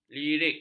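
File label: "lirik", Malay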